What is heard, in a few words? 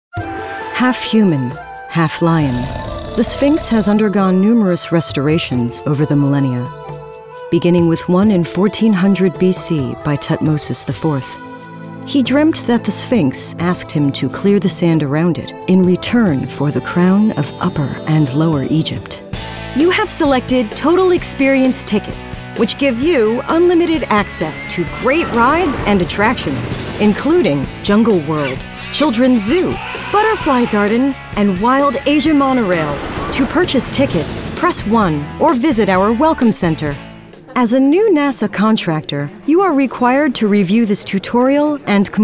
Narration